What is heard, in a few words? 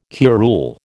"curule", American